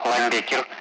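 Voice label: Speech Russian; Allied Flight; AirDefence; Linebacker; DCS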